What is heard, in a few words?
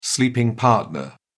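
"sleeping partner", British English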